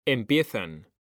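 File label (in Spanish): "empiezan", Spanish; mekanta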